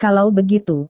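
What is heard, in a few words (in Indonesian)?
"kalau begitu", Indonesian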